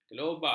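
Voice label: "kelobak", Malay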